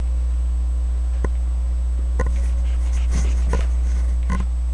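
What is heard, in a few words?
"gentle", spoken word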